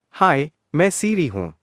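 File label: Indian English, Hindi; en-IN; hi-IN; iOS Sounds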